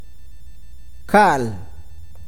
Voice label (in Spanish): "casa", awakateko